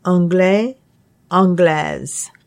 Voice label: "anglais", French; anglais (m), anglaise (f); English

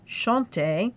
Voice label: "chanter", French

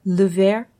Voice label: "le verre", French; drinking glass; To the Restaurant

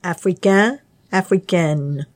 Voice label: "africain", French

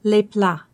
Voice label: "les plats", French

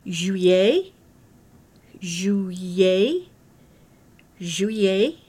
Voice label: "juillet", French

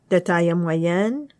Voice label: "de taille moyenne", French; average height

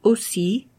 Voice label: "aussi", French

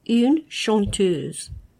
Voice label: "une chanteuse", French

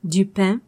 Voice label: "du pain", French; bread; The Menu

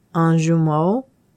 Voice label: "un jumeau", French

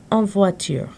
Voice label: "en voiture", French

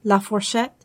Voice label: "la fourchette", French

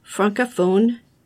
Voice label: "francophone", French; Canada

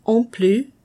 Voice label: "en plus", French

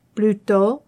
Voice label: "plutot", French

